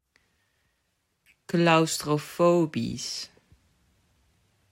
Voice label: "Claustrofobisch", Dutch